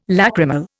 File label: "lacrimal", Indian